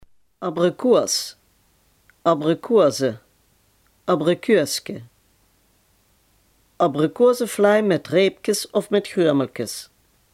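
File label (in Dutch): "abrikoos" Limburgish dialect word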